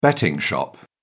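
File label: "betting shop", British English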